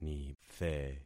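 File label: "nithe", concatenated syllables; Affixing experiment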